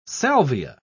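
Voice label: "salvia", British English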